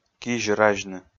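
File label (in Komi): "кизь разьны", Komi, male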